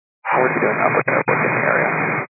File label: 122.925 MHz; scanner radio